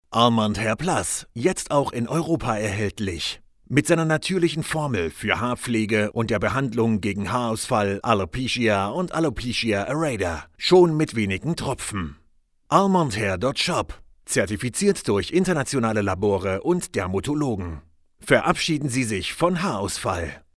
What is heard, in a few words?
Spot Online; Almond Hair Plus